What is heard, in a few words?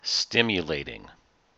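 "stimulating", US English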